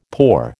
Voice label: "poor", American

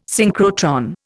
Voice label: "synchrotron", Indian

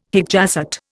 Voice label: "hic jacet", Indian

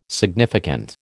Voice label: "significant", American

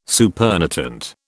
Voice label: "supernatant", British

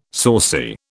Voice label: "saucy", British

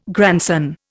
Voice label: "grandson", Indian

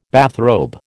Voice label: "bathrobe", American

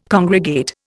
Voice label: "congregate", Indian

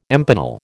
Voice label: "empanel", American

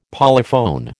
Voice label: "polyphone", American

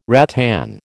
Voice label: "rattan", American

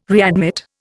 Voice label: "readmit", Indian

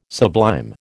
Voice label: "sublime", American

